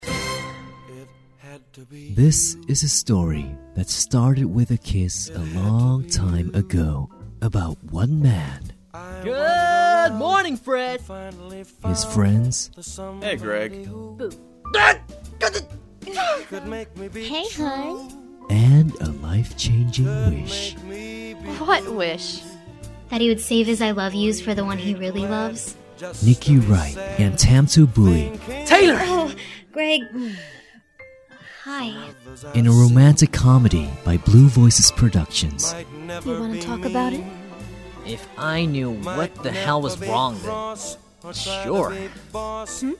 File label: commercial